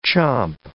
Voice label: "chomp", English